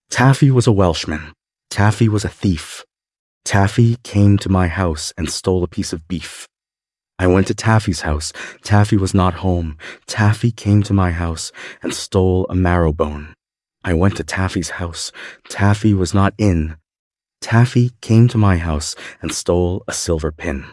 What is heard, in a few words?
male US, classic rhymes; Taffy was a Welshman